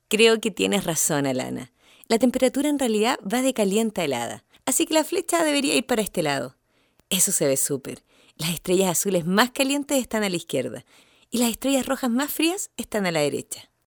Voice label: locutora chilena chilean voiceover; locutores chilenos